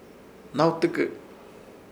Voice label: "Hnawtɨkɨ" hamaca para guardar coas, Ishir Chamacoco